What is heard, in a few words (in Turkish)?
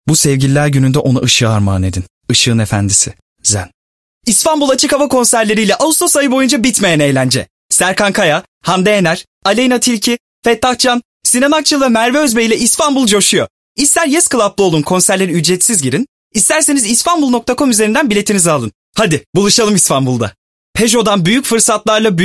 REKLAM